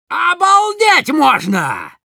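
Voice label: positive vocalization, Russian; Demoman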